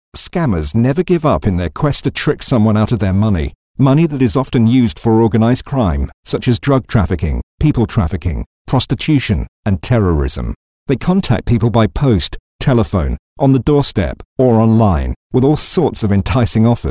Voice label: Scams Advert Radio; Cambs County Council; Cambridge 105 Radio